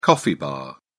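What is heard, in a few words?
"coffee bar", English, British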